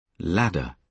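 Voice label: "ladder", British English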